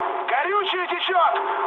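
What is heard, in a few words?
tank damaged, mood high, Russian; War Thunder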